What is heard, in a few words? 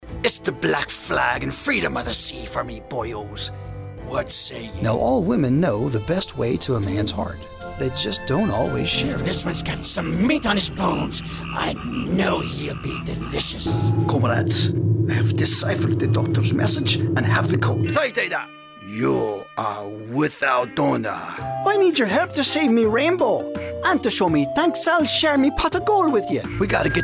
Character